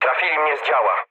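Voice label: damaged aa, mood low, Polish speech; War Thunder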